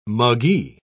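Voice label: "muggee", British English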